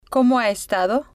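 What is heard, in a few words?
"como Ha Estado", spanish, female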